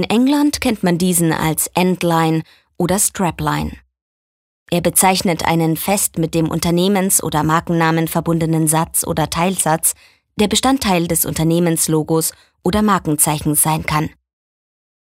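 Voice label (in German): commentary, Claim, Neutral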